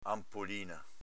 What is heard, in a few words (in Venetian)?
"anpolina", Veneto